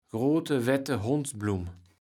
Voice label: "Grote Wette Hondsblum", Platt

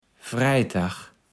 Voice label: "Freitag", platt

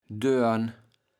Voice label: "Dörn", platt

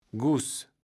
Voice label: "Guss", Platt

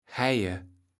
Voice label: "Heije", Platt

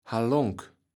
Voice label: "Hallonk", Platt

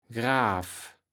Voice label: "Graav", Platt

